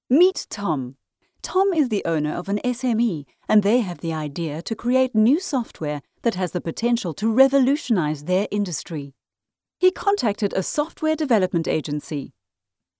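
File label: English New Zealand, female, voice over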